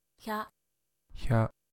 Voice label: "hya", Japanese